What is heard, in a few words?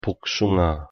"복숭아", Korean; pronunciation